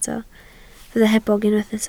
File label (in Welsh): "hebog", Welsh